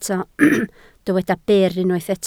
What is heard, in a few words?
"byr", Welsh